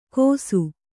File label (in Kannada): "ಕೋಸು", Kannada